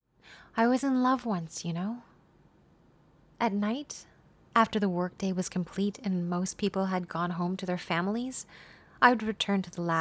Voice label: Audiobook, Clear, Soft, Relaxed, Sexy, Velvet and Melodic; Dandilion